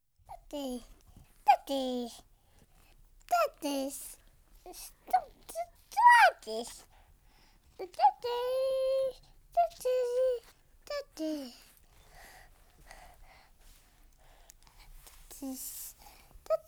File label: Month Old Boy, Words Daddy, Babies; BBC Sound Effects Library